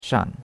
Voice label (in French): "shan3", chinois; Cours-de-Chinois